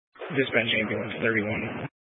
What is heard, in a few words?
Fire Department Dispatch, scanner